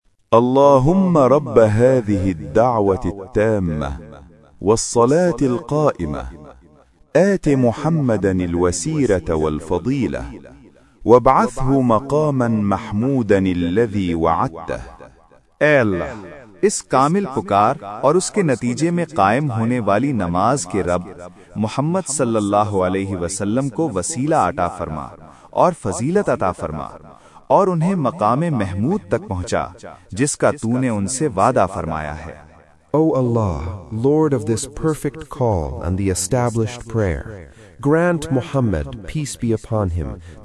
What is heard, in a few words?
Dua after Azan With English and Urdu Translation